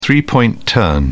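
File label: "three point turn", British English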